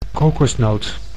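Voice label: "kokosnoot", Dutch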